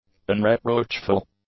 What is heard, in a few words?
"unreproachful", english; adjectives